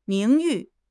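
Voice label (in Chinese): "名誉", Chinese; HSK; HSK6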